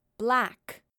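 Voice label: "Black", female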